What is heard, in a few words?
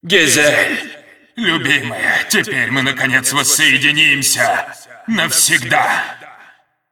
cinematic voice, ru; lineage2